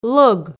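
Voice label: "лӧг", Komi, female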